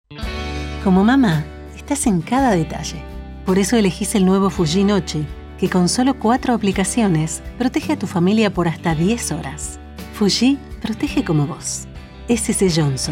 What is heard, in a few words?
Spanish Argentina